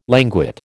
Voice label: "languid", American